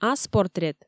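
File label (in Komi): "ас портрет", Komi, female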